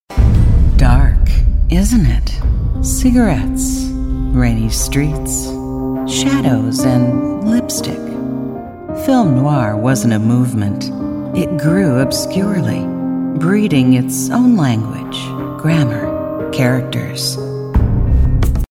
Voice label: narration variety film noir docu narration